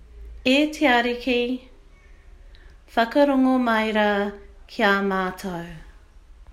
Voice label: listen to te reo māori response